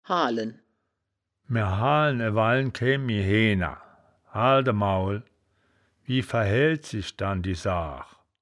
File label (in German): "haalen", wittlich